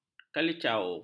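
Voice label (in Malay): "kalicau", Malay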